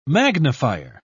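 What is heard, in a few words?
"magnifier", British English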